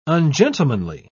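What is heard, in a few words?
"ungentlemanly", English, uk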